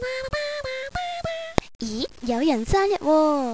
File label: Birthday, Cantonese girl; ICQ